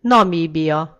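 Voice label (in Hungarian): "namibia", Hungarian; gcompris; geography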